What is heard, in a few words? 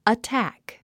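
"attack", English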